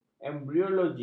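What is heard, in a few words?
"embriologi", Malay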